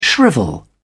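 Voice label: "shrivel", British English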